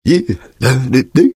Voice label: taunt cong fun; engy; tf; taunts